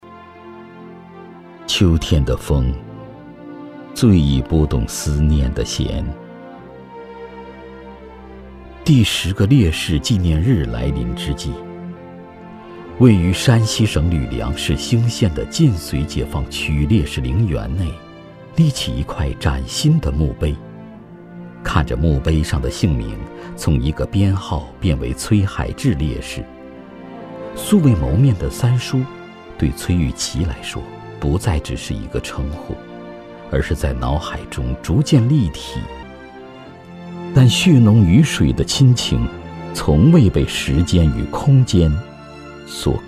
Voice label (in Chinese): 男, 人物专题; 终于找到你